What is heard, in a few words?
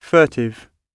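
"furtive", British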